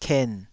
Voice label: "ken3", taiwanese syllables